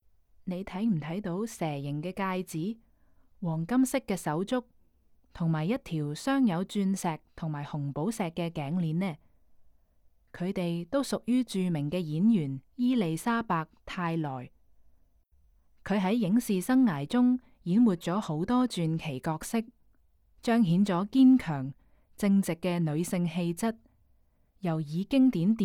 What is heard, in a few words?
Cantonese, audio guide; cartier and women